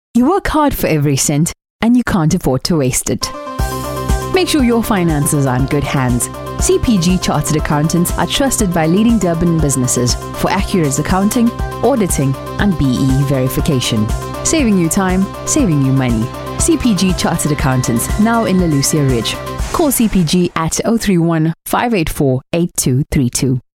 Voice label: advert

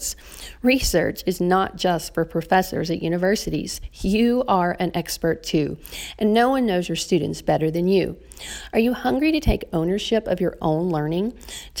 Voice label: teacher elevator pitch

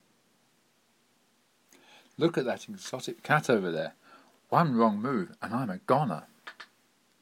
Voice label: English accent

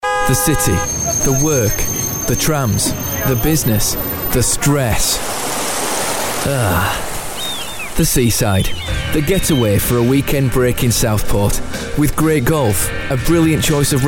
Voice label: radio commercial; visit southport

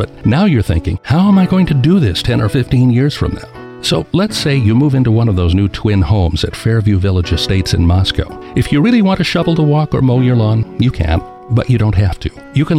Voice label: radio advertising growing old; goodsam

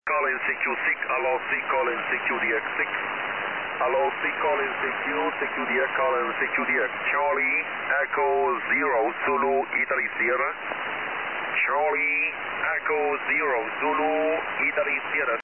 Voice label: 6m ssb

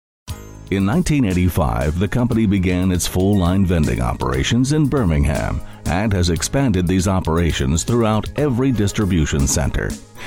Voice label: corporate business voiceover

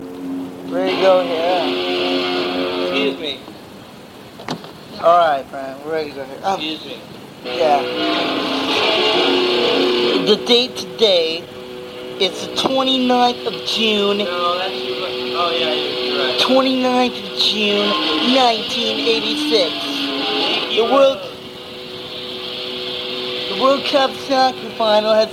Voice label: electrical storm banter

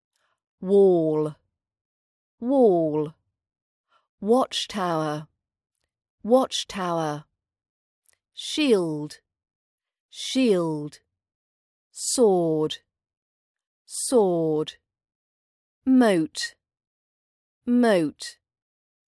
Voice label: castles vocab rp british accent training audio

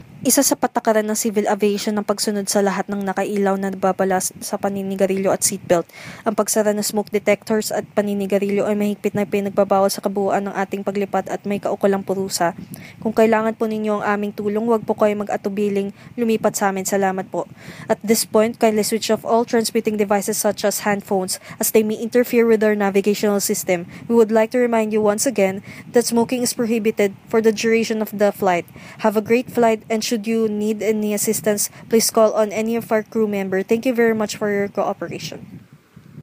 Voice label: airplane announcements

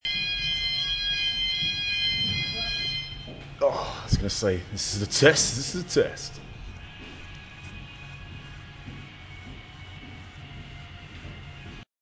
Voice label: emergency test evacuation

